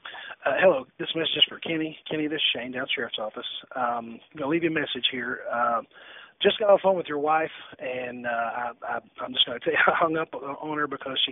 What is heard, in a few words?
voicemail